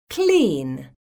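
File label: "CLEAN", English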